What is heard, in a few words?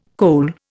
"kohl", Indian